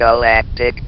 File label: "galactic", votrax; VIDEO GAMES